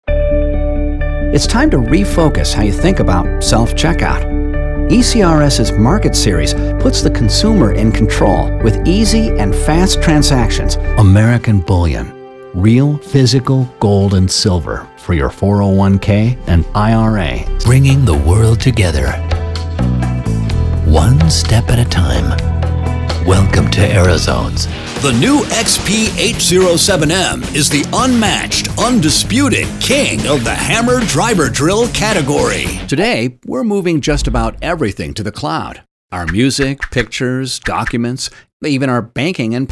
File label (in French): Homme 35 45 ans Medium